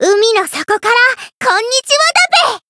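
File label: Voice variable chant, Japanese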